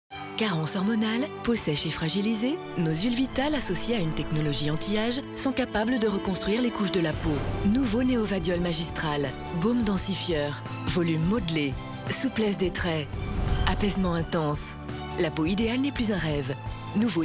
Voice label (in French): pub tv ton naturel classe; vichy neovadiol magistral